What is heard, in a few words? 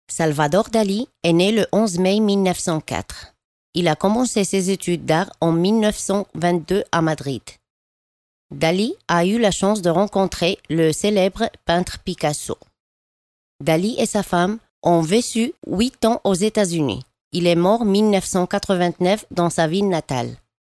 african french voiceover locutora francesa marruecos; locutora francesa de Marruecos